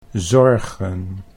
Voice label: "zorgen", Dutch; 'worries'